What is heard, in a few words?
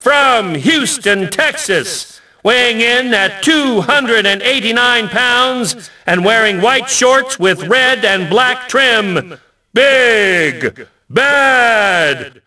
announce, ENGLISH; Rocky; XBOX